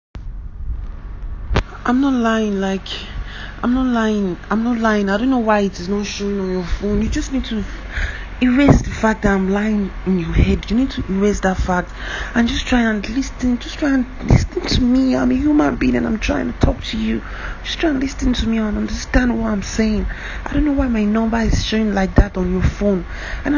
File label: audio Message